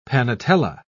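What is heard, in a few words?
"panetela", British English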